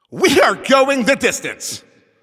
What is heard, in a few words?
Announcer Overtime Starts